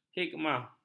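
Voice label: "hikmat", Malay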